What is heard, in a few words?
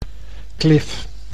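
"klif", Dutch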